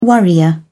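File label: "worrier", British English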